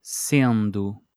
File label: "sendo", portuguese